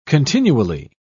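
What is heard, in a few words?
"continually", British English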